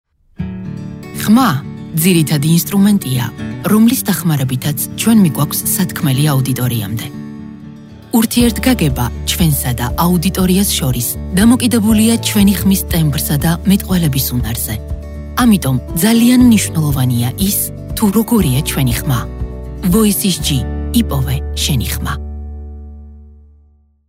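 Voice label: German, female, documentary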